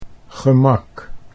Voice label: "gemak", Dutch